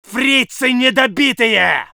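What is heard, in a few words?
voice soviet infantry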